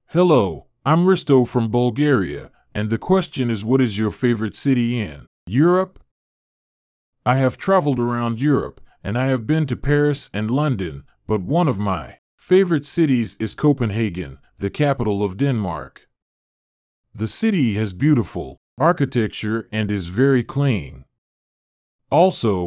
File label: Audiobook Voice